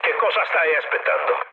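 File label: Italian attack position mood low, speech; War Thunder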